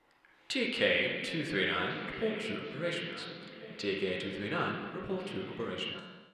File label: veteran pa; gmod; star wars battlefront 2 galaxy map; rp fortmithraw